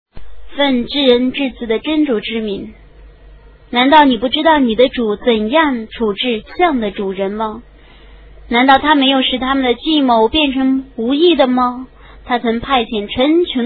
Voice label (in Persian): ترجمه گویای قرآن کریم به زبان چینی; سوره فیل